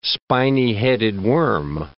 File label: "spiny-headed worm", English